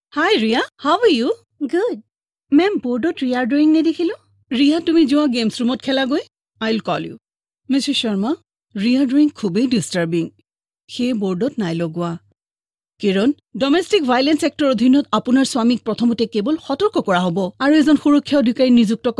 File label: tvc assamese